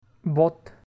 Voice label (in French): "bottes", French